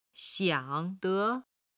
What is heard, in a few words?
"xiang3 de2", Chinese